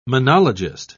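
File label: "monologist", British English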